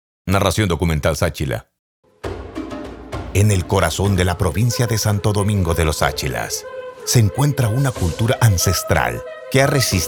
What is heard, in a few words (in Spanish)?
voz narracion; DJ Drops